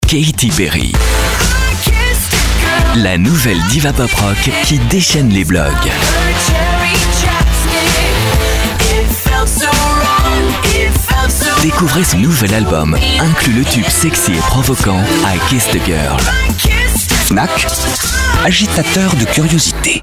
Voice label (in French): promo, Voix off disque